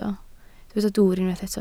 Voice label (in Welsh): "dwwr", Welsh